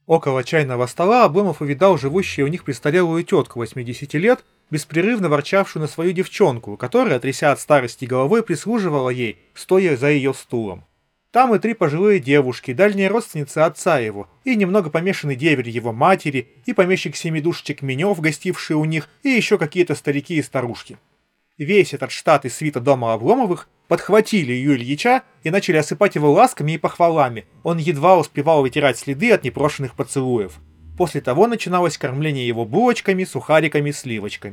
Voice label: Recorder; oneplus nord buds 4 pro